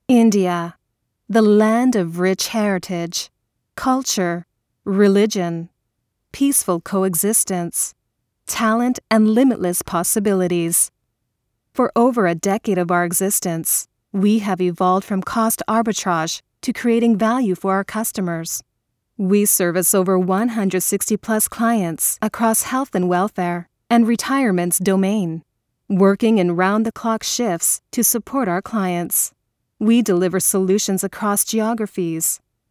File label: corporate, American English